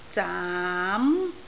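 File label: "zaam2", Cantonese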